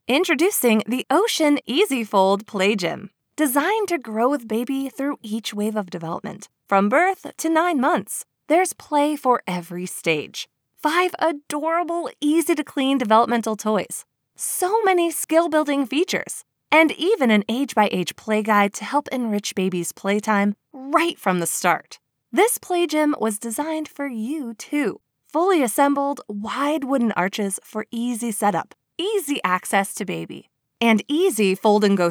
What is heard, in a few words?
Commercial; Melissa and Doug